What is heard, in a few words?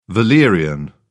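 "valerian", British English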